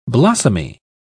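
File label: "blossomy", British English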